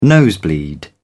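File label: "nosebleed", British English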